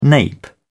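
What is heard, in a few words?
"nape", British English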